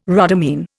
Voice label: "rhodamine", Indian

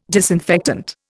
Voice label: "disinfectant", Indian

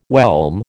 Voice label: "whelm", American

